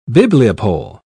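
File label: "bibliopole", British English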